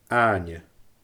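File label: "aaithne", Scottish Gaelic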